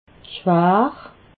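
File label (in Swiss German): "schwar", Haut Rhin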